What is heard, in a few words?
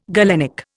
"galenic", Indian